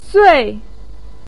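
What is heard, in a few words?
"sui", Mandarin pinyin, fourth tone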